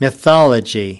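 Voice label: "Mythology", American English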